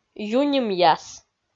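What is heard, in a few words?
"ю нимъяс", Komi, female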